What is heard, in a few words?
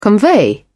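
"convey", British English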